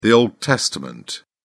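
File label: "old testament", British English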